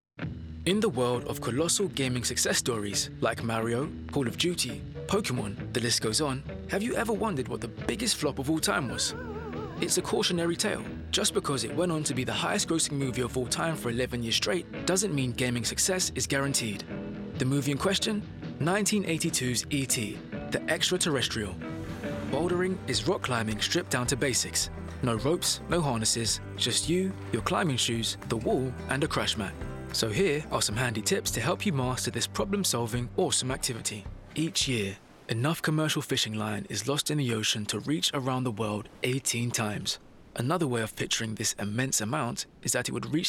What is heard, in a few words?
male english narration compilation